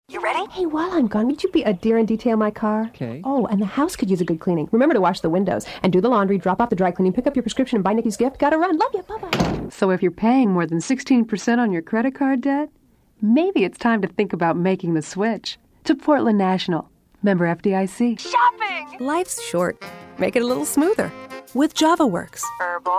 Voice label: Commercial Female; commercial voiceover